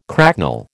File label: "cracknel", American